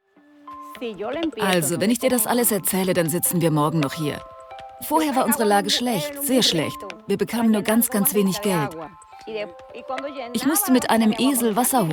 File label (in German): VoiceOver älter; Mein Fairtrade Abenteuer